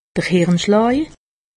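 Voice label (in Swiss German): "hirnschlaa", Alsatian; medecine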